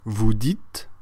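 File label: "dire vous", French; frans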